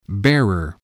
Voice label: "bearer", English